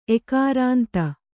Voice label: "ಎಕಾರಾಂತ", Kannada

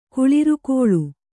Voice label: "ಕುಳಿರ್ಕೊಳ್", Kannada

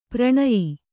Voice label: "ಪರಿಣಯ", Kannada

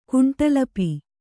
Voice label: "ಕುಂಟಲಪಿ", Kannada

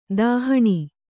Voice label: "ದಹನ", kannada

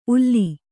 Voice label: "ಉಲ್ಲಿ", Kannada

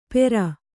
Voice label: "ಪೇರ್", kannada

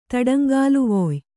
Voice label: "ತಡಂಗಾಲುವೊಯ್", Kannada

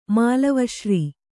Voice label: "ಮಾಲವಶ್ರೀ", kannada

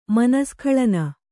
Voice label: "ಮನಸ್ಖಳನ", kannada

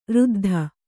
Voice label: "ಋದ್ಧ", Kannada

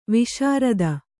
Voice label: "ವಿಸೃತ", Kannada

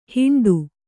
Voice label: "ಹೆಂಡೆ", Kannada

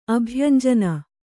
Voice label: "ಅಭ್ಯಂಜನ", Kannada

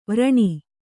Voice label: "ವಾರಣಿ", kannada

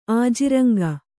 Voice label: "ಆಜಿರಂಗ", Kannada

